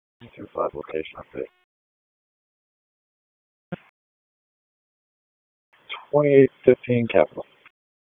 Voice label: Police Northeast District Disp, p25

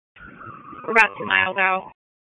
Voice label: PS TAC, p25

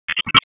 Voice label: Police Spec Ops Tac 4, p25; Raleigh; Spec Ops Tac 7; wake

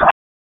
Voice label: Police Spec Ops Tac 2, P25; Raleigh; wake

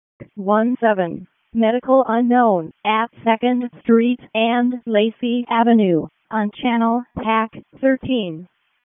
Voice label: EMS ALERT; wake

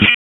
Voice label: Police Tac, p25; wake

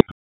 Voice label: Police Spec Ops Tac 7, p25; Raleigh; wake

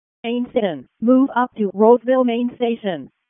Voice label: WC EMS ALERT, p25; wake p25

